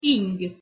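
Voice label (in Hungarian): "ing" Hungarian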